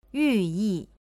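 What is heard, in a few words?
"yuyi", Chinese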